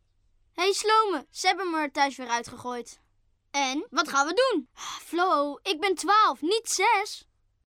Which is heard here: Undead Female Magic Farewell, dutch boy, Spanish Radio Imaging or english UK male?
dutch boy